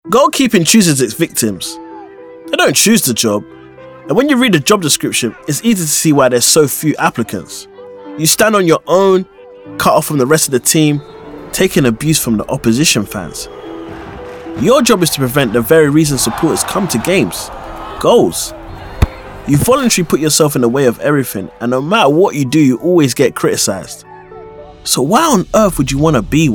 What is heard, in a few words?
Narration; Goalkeeper